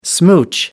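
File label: "smooch", British English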